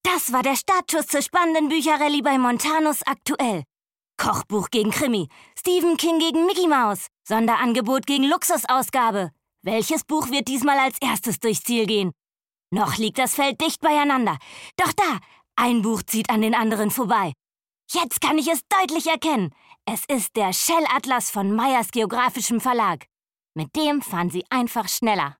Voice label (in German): Werbung; Charge; LOFT VOICES